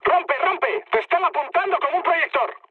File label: searchlite, mood med, speech; War Thunder